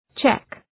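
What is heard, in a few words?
"Czech", English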